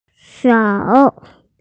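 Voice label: "zhao3", Mandarin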